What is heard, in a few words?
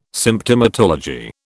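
"Symptomatology", British English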